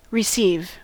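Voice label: "receive", US English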